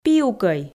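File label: "пиукӧй" Komi, female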